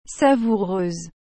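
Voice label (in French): "savoureuse", French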